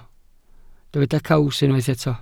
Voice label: "caws", welsh

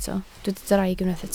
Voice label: "draig", Welsh

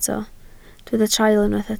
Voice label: "traul", Welsh

